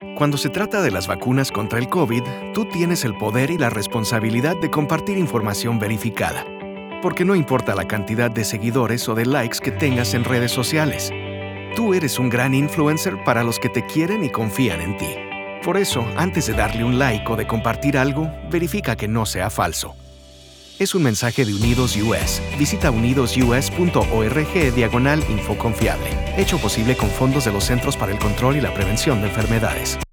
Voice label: radio spa